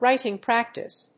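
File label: "Writing Practice", English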